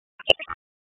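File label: Sheriff's Office Dispatch 1, p25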